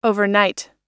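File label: "overnight", English; oxford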